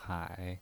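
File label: "hai", Mandarin Chinese, third tone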